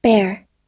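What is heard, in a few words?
"bear", English pronunciation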